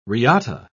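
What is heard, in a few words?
"riata", British English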